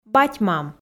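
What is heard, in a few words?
"бать-мам", Komi, female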